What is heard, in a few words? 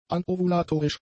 "anovulatorisch", German; adjectives